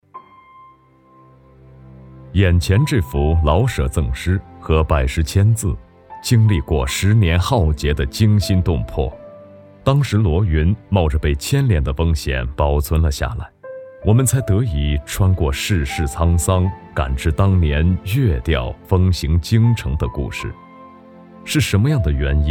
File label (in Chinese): 男-记录